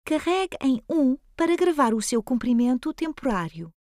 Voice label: Voicemail Temporary Greeting, voice prompts, portuguese